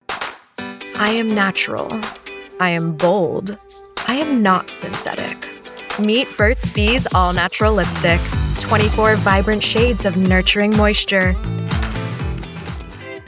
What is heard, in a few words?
voiceover audio books; New York